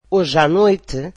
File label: portuguese tonight